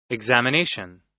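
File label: "examination", American English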